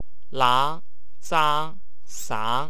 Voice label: "ang", Wu Chinese